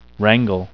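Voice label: "wrangle", English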